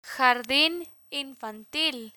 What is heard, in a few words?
"jardin infantil", Spanish